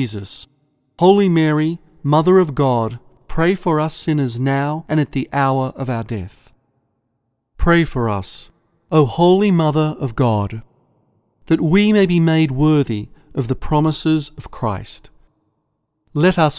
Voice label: spoken angelus prayer with bells